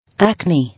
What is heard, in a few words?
"Acne", English